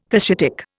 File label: "Cushitic", Indian